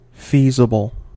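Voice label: "feasible", American English; pronunciations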